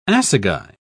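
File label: "assagai", British English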